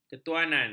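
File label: "ketuanan", Malay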